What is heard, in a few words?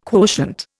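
"quotient", Indian English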